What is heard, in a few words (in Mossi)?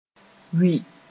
"wɩ", moore